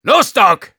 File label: soldier activate charge, de